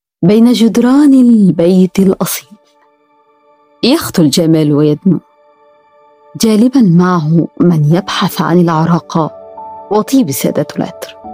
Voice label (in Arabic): إعلان